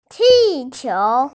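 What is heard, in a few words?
"qi4 qiu2", Mandarin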